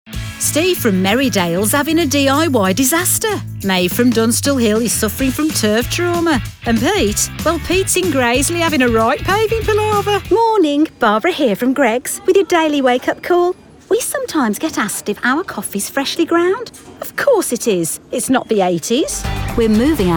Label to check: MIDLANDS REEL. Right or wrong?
Right